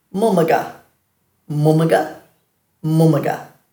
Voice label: "mumaga", Dhurga

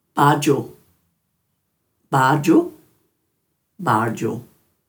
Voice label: "bardju-", Dhurga

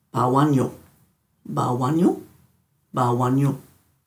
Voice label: "bawanyu", Dhurga

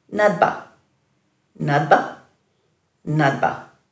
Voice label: "nadba", Dhurga

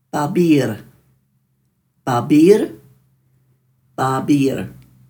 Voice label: "baabirr", Dhurga

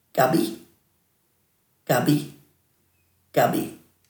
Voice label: "gabi", Dhurga

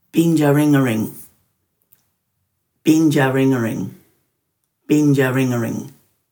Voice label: "bindjaringaring", Dhurga

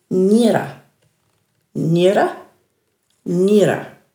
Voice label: "njirra", Dhurga

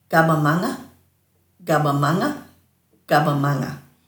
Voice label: "gabamanga", Dhurga

